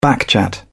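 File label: "backchat", British English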